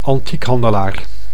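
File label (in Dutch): "antiekhandelaar", Dutch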